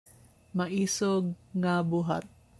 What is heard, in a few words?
Cebuano